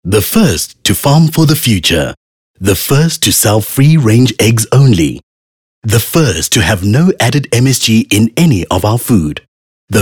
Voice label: English, soft sell

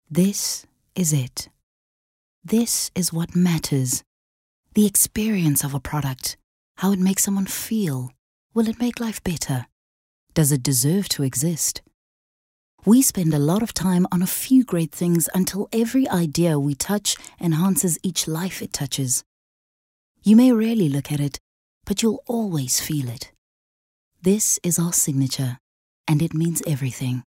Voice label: English Dramatic

